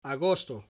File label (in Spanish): "Agosto" August